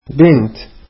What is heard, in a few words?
girl, arabic